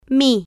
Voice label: "mi", Spanish